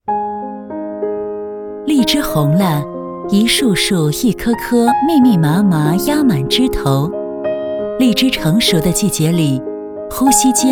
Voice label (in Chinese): 专题 产品 年轻, 女国